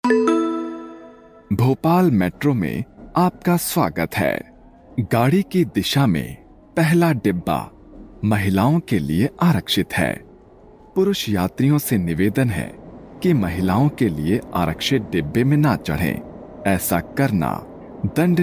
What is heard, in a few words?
Metro Announcement VO Hindi